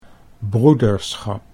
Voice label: "broederschap", Dutch